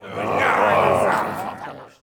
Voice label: orc uk murm

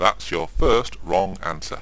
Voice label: chat

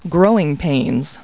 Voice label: people, kid

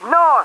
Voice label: cops, English; Driver

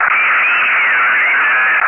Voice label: Chatter

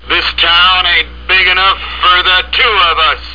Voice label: threat

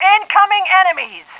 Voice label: female; Starsiege Tribes

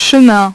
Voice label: "chemin", French; French Pronunciation 1.2

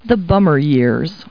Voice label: people, kid

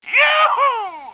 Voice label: male taunt; voices; Starsiege; Tribes

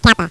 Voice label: Kappa, Greek

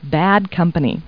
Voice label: people, kid

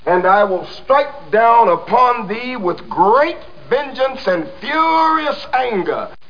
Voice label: cool speech

